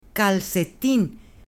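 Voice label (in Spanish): "calcetín", Spanish, female; ROPA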